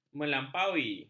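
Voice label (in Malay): "melampaui", Malay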